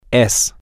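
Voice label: is permanent, Spanish, male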